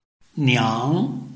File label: "niang2", Chinese